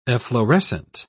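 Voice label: "efflorescent", British English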